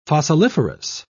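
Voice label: "fossiliferous", English, British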